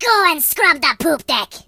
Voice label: Leave me alone, Pirate voice; hedgewars